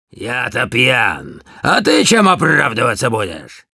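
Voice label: Demoman jeers, russian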